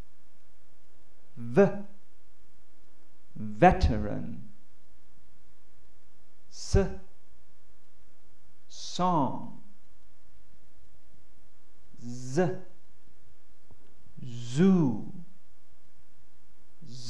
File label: fricatives; ELiX